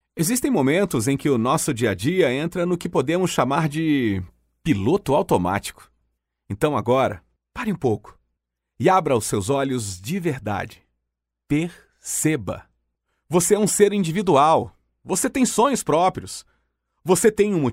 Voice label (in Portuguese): Portuguese Brazil